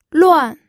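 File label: "luan", fourth tone, pinyin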